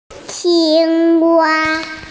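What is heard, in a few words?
"qing wa" Chinese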